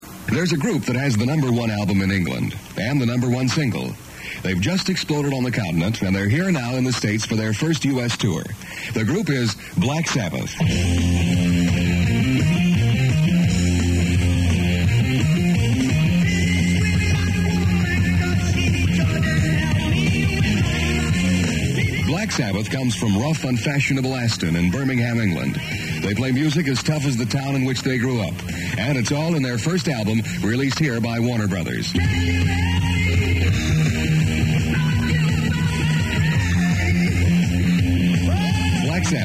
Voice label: ad